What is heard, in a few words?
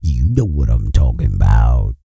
E SPEECH, Vocal Planet R&B Dance